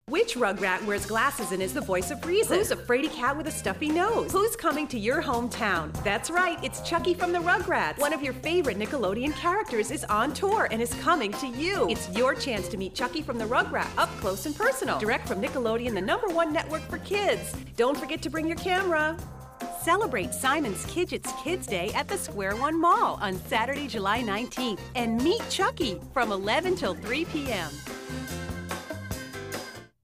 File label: english american animation